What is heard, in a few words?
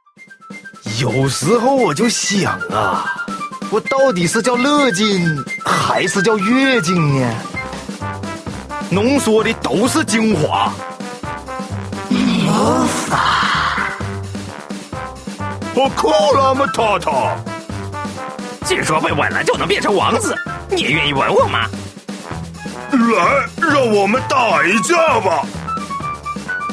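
other funny, male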